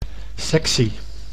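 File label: "sectie", Dutch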